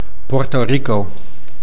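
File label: "Puerto Rico", Dutch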